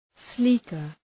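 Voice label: "sleeker", English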